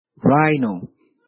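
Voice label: "rhino", English; grade4; vertebrates invertebrates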